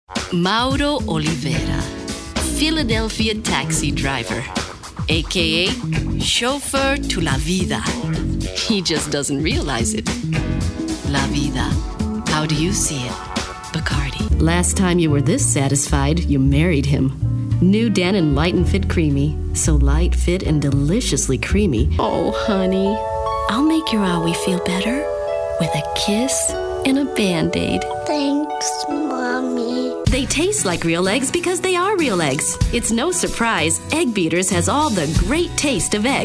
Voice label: English Espanol

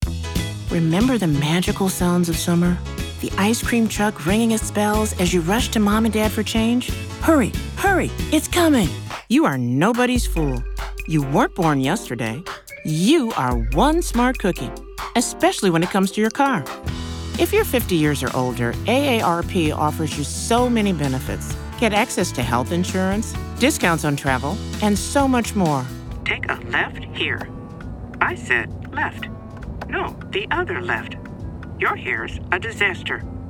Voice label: comm demo

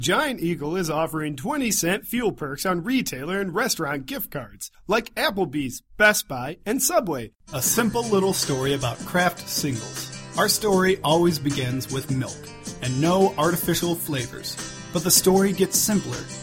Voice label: comm VO

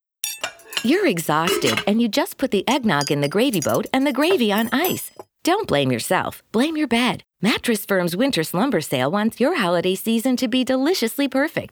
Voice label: comm VO

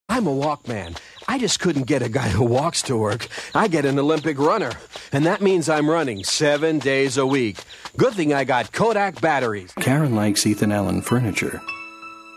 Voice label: English Spanish German Italian

